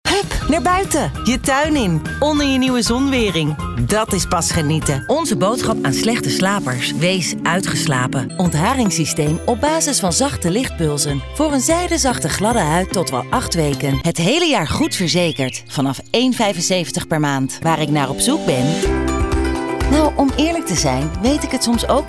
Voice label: commercials